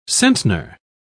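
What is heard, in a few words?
"centner", British English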